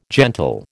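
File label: "gentle", American English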